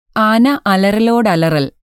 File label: Malayalam Recordings